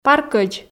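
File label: "паркӧдз", Komi, female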